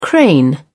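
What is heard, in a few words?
"crane", British English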